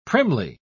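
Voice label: "primly", British English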